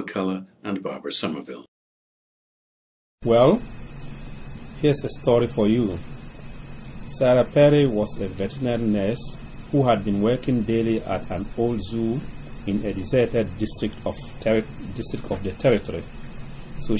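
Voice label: ghana, International Dialects of English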